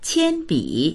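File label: "qian1bi3", Mandarin Chinese; 铅笔; 鉛筆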